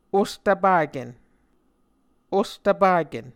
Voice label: "ostvpaken", Muscogee

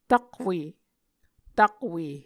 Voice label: "takwe", Muscogee

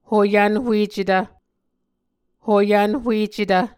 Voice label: "hoyvnhuecetv", Muscogee

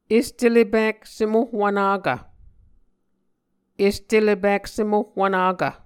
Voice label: "est ele pik sem ohwvnakv", Muscogee; Mvskoke Words with Voicing Consonants; A Dictionary of Creek/Muskogee; SIL International

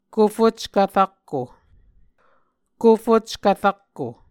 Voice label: "kofockv rakko", Muscogee

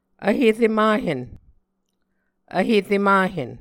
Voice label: "vhere mahen", Muscogee; Mvskoke Words with Nasalized Vowels

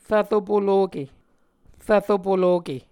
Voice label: "rvro poloke", Muscogee

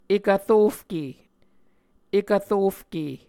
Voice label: "ekv rofke", Muscogee; Mvskoke Words with Voicing Consonants; Martin, Jack B., and Margaret McKane Mauldin. 2023. A Dictionary of Creek/Muskogee; SIL International

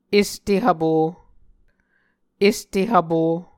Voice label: "este hvpo", Muscogee

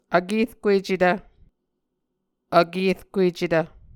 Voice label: "vkerkuecetv", Muscogee

